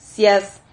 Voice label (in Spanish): "siaz", Silabas